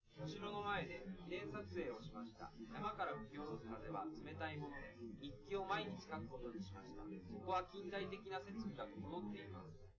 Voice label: male live rec 1m sim; SASSEC07

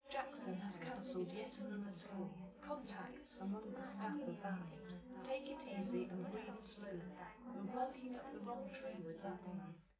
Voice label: female live rec

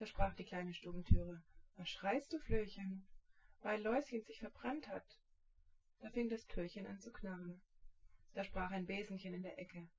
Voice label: test female synthconv 130ms 1m sim; SiSEC10; underdetermined

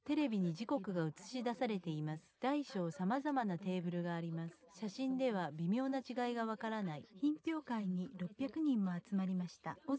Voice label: test female inst sim

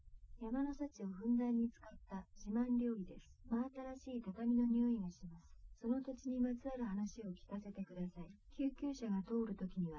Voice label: female liverec; SiSEC08; SiSEC underdetermined

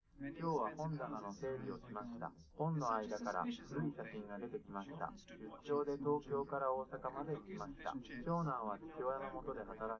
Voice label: male live rec; SiSEC11; underdetermined

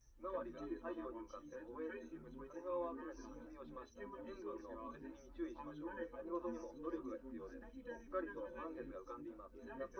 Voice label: male liverec; SiSEC10; underdetermined

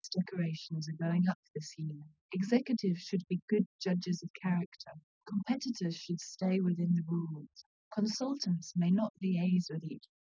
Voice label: female srec 130ms 20cm sim; SiSEC10; underdetermined

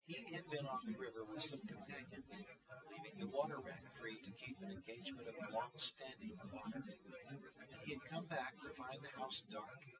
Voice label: test male synthconv 130ms 5cm src; SiSEC08; SiSEC underdetermined

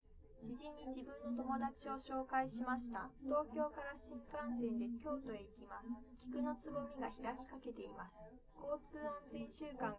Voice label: female

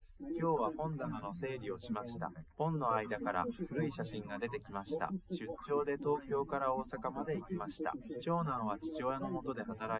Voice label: test male liverec 130ms 5cm src; SiSEC08; SiSEC underdetermined

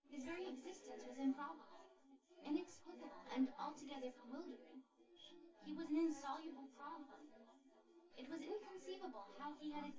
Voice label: female synthconv

